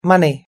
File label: "money", us english